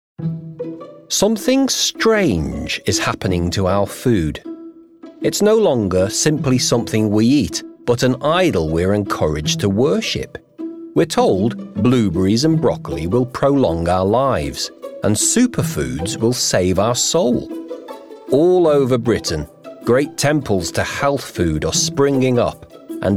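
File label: doc, male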